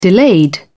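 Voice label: "delayed", British English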